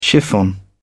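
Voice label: "chiffon", British English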